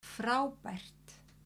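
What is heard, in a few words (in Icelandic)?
"frábært", Icelandic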